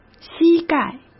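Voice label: "膝盖", Mandarin Chinese; chinese-flashcards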